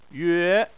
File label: "yue2", Chinese